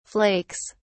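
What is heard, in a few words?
"flakes", British English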